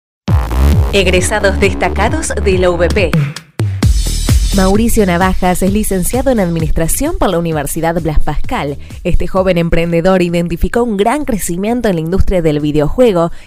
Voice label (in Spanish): RADIO Micro; RADIO PASCAL